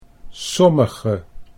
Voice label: "sommige", Dutch